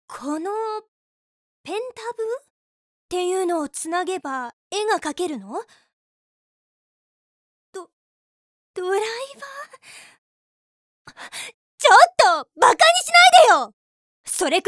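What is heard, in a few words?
voice sample, mature older sister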